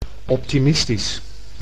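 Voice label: "optimistisch", Dutch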